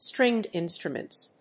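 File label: "stringed instruments", English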